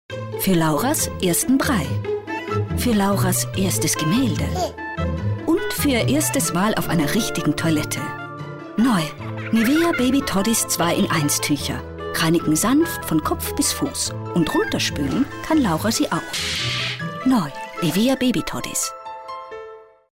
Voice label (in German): Frau OFF